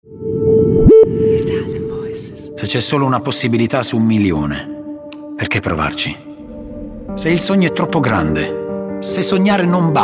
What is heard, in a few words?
ITALIAN VOICES, male voices; Cupra Formentor